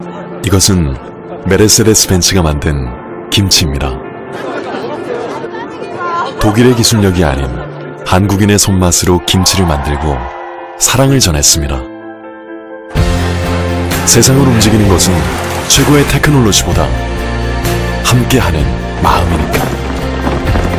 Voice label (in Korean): CF 부드러운 편안한 신뢰감; 벤츠